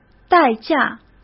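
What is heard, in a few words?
"代价", Mandarin Chinese; hsk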